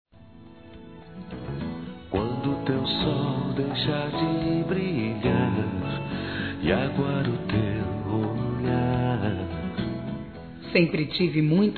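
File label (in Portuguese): Trilha ANIVERSÁRIO PAI, Gospel, fem; Telemensagens; Telemensagens 2017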